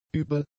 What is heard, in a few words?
"übel", German; adjectives